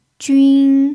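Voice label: "jun1", Chinese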